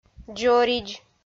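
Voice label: "дзоридз", Komi, female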